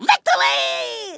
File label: "victory", Kamikaze; Worms Armageddon